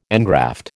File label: "engraft", American